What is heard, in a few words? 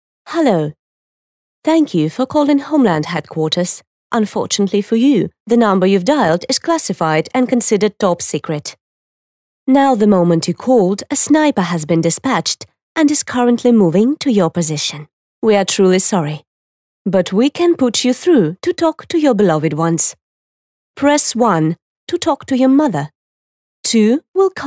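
IVR VO English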